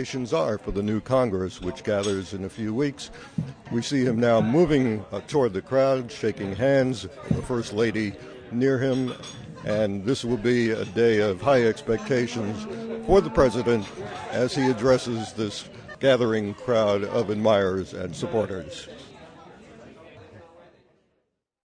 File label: News Whitehouse, Broadcast, news correspondent, live report from the Whitehouse; broadcast, live report from the Whitehouse (human voice speaking)